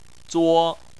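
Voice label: "zuo" first tone, chinese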